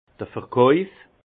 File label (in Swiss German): "verkauf", alsace; vin; vente